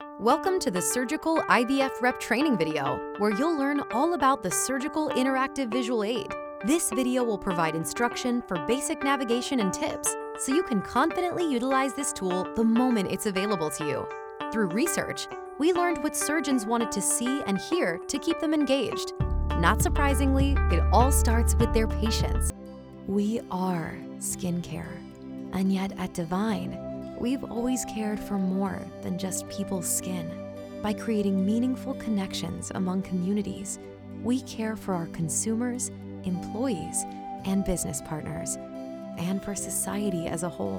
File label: Corporate and E Learning